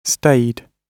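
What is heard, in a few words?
"stayed", British English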